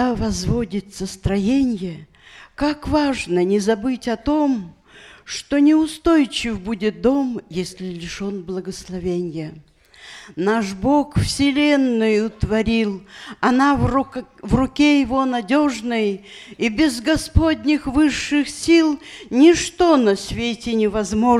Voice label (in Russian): Стих (Благословение); Сибирь-13